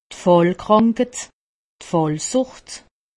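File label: "fallkranket", Alsatian; medecine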